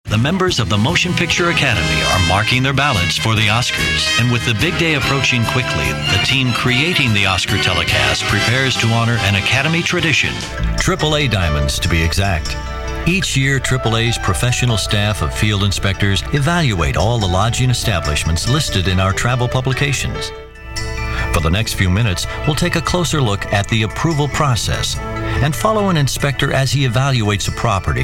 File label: CORPORATE Narration